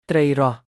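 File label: "ត្រី រ៉ស់", Khmer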